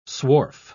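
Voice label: "swarf", British English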